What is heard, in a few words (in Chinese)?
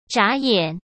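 "眨眼", Mandarin Chinese; hsk; chinese-flashcards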